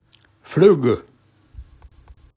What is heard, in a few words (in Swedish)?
"fluggu", fluga, daladialekt